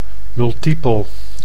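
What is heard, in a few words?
"multipel", Dutch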